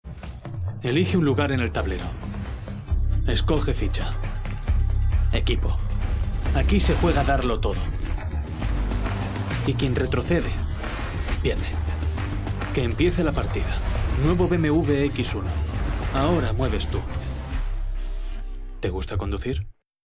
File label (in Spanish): anuncio; BMW X1